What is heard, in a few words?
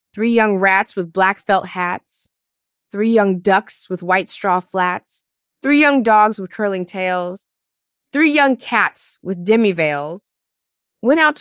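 Three Young Rats, female US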